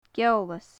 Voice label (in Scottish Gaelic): "geolas", gaelic